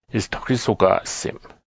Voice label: "shirt", Blackfoot; isttohksisoka’sim